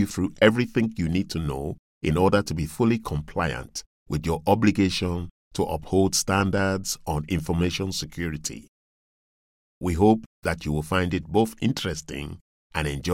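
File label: African English e-learning West accent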